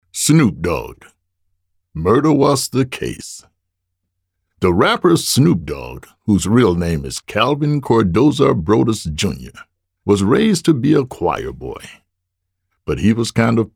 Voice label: AUDIOBOOK